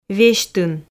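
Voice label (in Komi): "весьтын", Komi, female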